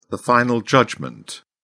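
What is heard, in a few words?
"final judgment", British English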